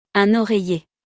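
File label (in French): "Un oreiller", French; vocabulaire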